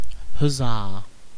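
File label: "huzzah", British English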